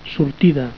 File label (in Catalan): "sortida", catalan